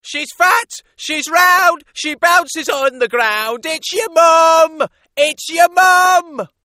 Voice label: funny mum